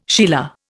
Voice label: "sheila", Indian

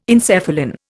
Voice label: "encephalin", Indian

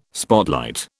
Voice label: "spotlight", British

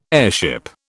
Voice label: "airship", British

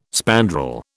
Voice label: "spandrel", British

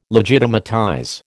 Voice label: "legitimatize", American

